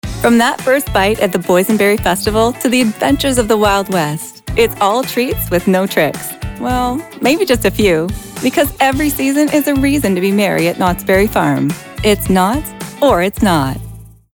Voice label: spot; Knotts